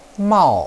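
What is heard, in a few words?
"mao4", Chinese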